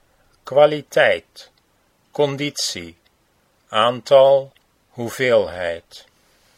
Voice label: kwaliteit conditie, Dutch

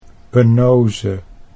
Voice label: "penose", Dutch

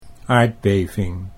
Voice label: "aardbeving", Dutch

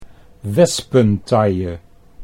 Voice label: "wespentaille", Dutch

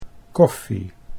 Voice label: "koffie", Dutch

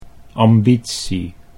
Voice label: "ambitie", Dutch

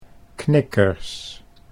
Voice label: "knikkers", Dutch

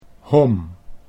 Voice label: "hom", Dutch

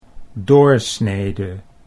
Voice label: "doorsnede", Dutch

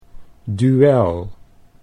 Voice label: "duel", Dutch

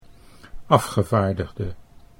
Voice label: "afgevaardigde", Dutch

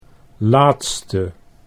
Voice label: "laatste", Dutch

